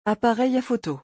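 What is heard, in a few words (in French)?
"appareil à photo", French; vocabulaire; le lexique des vacances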